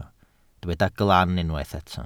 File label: "glan", Welsh